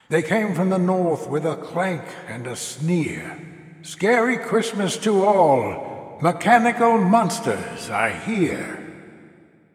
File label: mech monster narration; mechanical monsters mech the halls